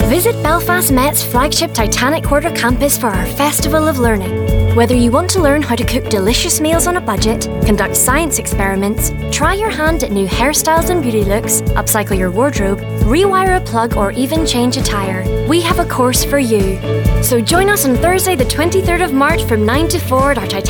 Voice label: Radio TX; Belfast Met